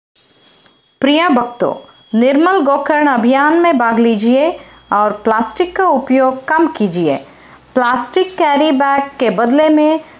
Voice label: Hindi